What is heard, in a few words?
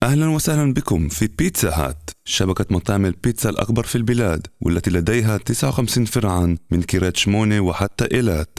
Arabic; Pizza Hut